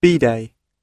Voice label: "bidet", UK